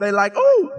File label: "they like ooh", voice; ooh ahh